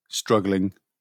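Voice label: "struggling", British English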